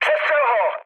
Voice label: attack position, speech cze, mood low; War Thunder

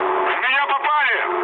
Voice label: aircraft damaged, mood med, speech ru; War Thunder

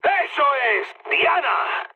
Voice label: bomb success, mood high, speech; War Thunder

